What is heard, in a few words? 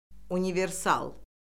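"universal", Azerbaijani; Orfoqrafiya Lüğəti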